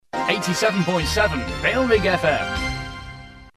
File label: male, sting; bailrigg fm